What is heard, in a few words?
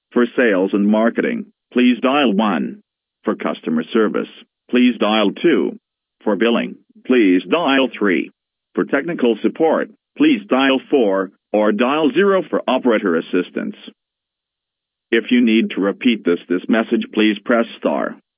IVR sample; alienvoip